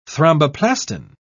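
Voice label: "thromboplastin", British English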